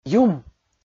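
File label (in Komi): "юм" Komi, male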